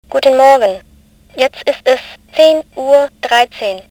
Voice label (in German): Zeitansage